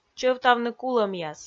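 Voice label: "чӧвтавны кулӧмъяс", Komi, female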